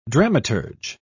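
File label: "dramaturge", British English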